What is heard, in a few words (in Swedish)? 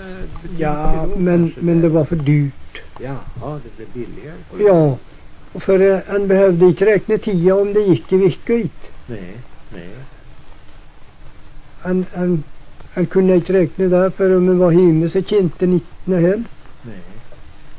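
Hede Härjedalen, svenska sagor dialekter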